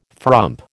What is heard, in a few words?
"frump", American